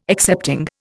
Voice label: "excepting", Indian